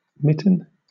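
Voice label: "mitten", British English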